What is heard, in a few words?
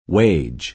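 "wage", American English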